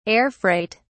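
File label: "air-freight", British English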